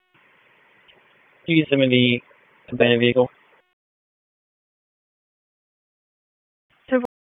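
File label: Police Southwest District Dispatch, p25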